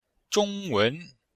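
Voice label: "zhong1wen2", Chinese; Cours de Chinois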